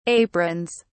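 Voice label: "aprons", British English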